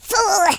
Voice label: oops, speech, Horror

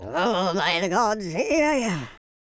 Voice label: pray, speech, Viking

